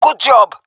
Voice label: compliment, fem; Counter Strike Model Pack; CS:GO; jungle fem epic